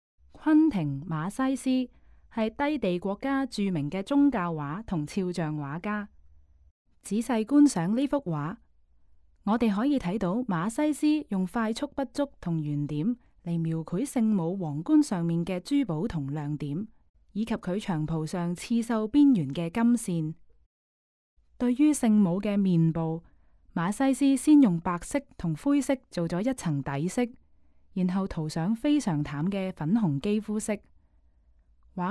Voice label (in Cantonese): Canto; audio guide